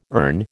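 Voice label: "erne", American English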